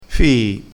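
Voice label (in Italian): "fii", vocabolario dialettale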